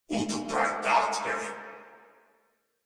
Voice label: Surprised Crewmen, Battle Barks; Dialog; Warframe; Corpus Crewmen; Crewman C